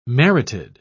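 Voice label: "merited", British English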